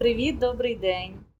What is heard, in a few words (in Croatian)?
ukrajinski; POP UP Zagreb